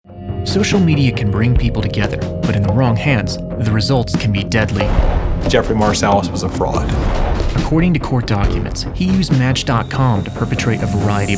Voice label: Narration; Narration Demo